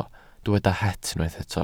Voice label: "het", Welsh